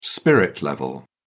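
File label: "spirit level", British English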